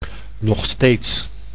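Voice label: "nog steeds", Dutch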